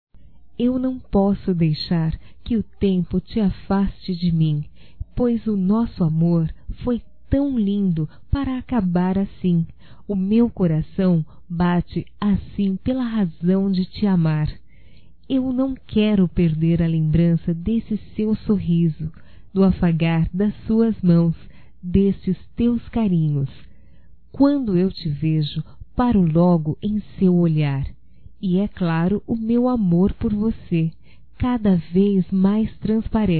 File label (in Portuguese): RECONCILIAÇAO (F); Românticas